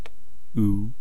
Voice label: "uu", East Cree syllabics; language